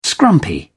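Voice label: "scrumpy", English, British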